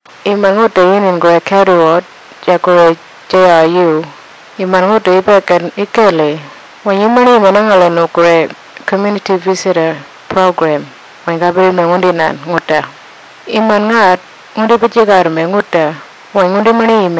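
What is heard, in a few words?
Kuniwinjku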